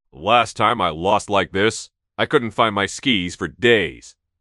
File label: upset, speech; fertig classic games